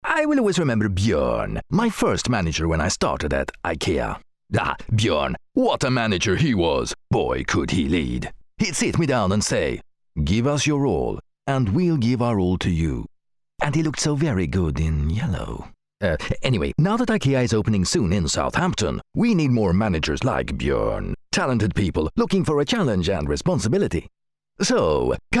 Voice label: male swedish english with swedish accent commercial; ikea